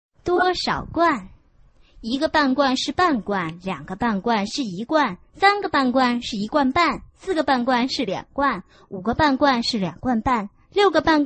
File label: chinese tongue twister